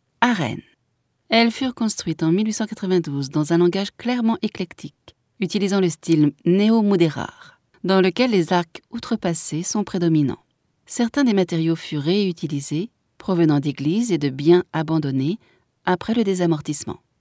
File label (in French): audioguia, frances; baeza